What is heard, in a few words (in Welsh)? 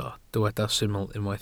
"syml" Welsh